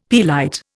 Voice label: "pearlite", Indian; Dictionary